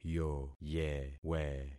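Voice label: "yoyewe", concatenated syllables; Affixing experiment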